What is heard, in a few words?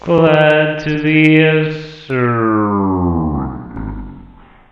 Robot Bad Card